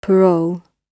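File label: "parole", British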